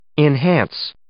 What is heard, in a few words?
"enhance", English